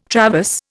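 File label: "traverse", Indian English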